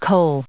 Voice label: "kohl", en us

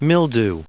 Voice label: "mildew", us

